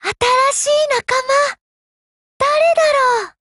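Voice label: BUILD OVER Japanese; GF Alarm; UMP9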